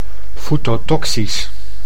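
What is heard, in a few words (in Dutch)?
"foetotoxisch", Dutch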